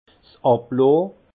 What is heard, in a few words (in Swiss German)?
"ablohnn", alsace; vin